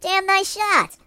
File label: "Perfect", voices Mobster